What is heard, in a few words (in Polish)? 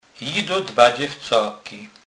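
"dzewcak", Kurpie dialect, Polish; slownik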